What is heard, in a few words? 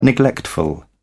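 "neglectful", British English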